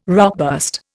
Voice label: "rockburst", Indian

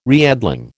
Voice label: "reedling", American

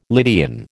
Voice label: "Lydian", American

